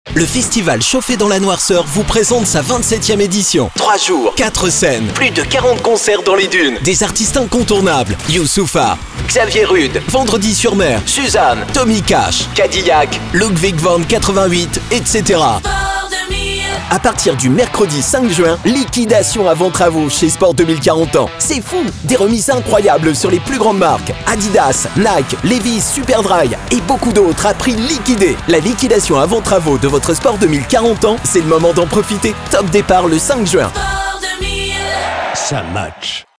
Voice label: french promos

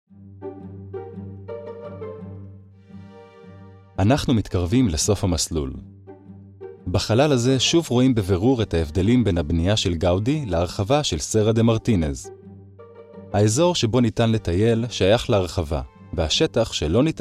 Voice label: hebrew documentaries

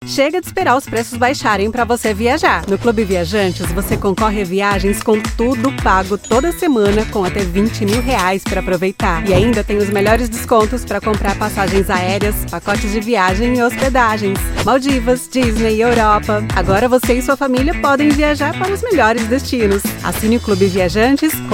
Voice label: portuguese brazilian tv shows

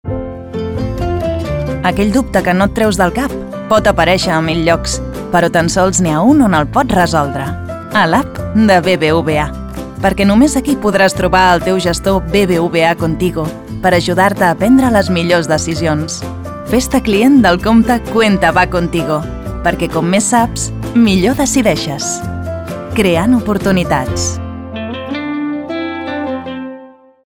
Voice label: catalan others

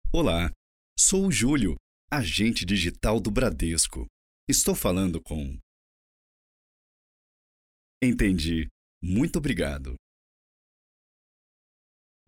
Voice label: portuguese brazilian ivr

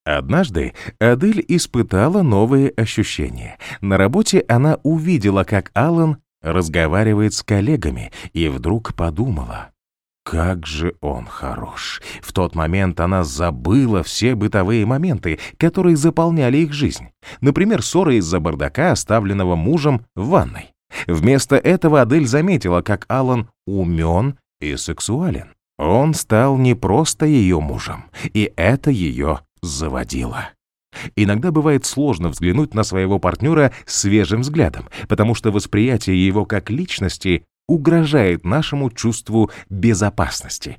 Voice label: russian narration

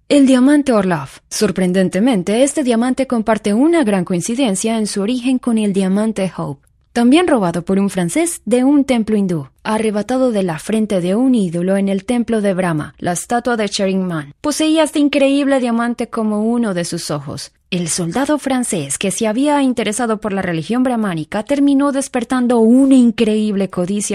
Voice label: spanish latin american narration; Voz versátil y expresiva, hablante nativa de español.

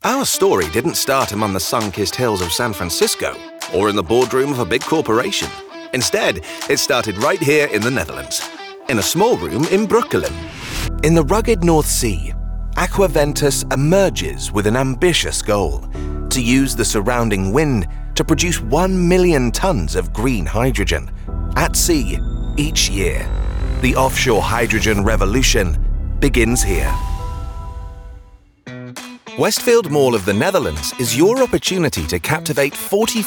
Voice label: english british corporate videos

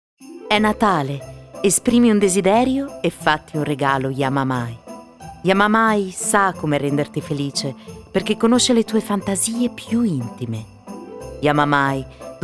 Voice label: italian

